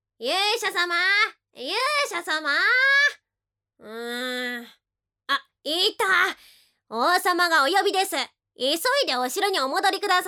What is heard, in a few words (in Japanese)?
アニメ